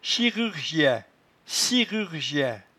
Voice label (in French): "chirurgien", patois jura